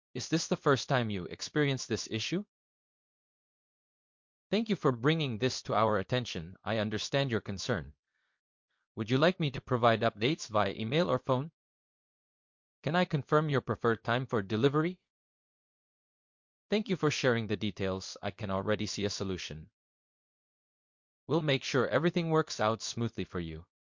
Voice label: after V3, accent conversion; Krisp